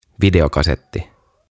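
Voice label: "Videokasetti", Finnish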